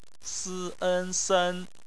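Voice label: "sen" bopomofo, Chinese; phonetics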